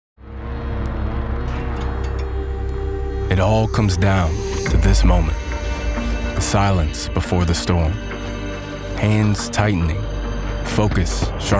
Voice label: Male 25 35 years Low